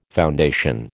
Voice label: "foundation", American

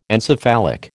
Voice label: "encephalic", American

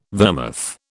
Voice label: "vermouth", British

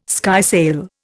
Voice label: "skysail", Indian

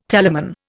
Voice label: "telamon", Indian

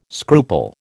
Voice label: "scruple", American

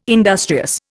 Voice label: "industrious", Indian

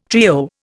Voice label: "trio", Indian

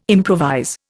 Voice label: "improvise", Indian

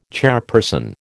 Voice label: "chairperson", American

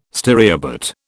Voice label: "stereobate", British

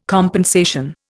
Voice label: "compensation", Indian

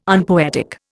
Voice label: "unpoetic", Indian

